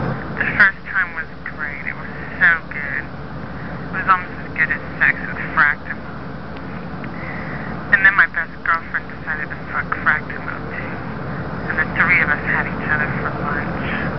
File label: lesbian phone talk, silly lo-fi orgasms